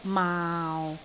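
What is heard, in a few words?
"maau6", Cantonese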